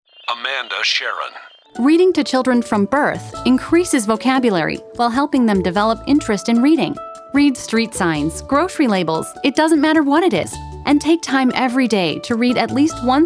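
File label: commercial voice over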